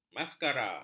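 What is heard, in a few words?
"maskara", Malay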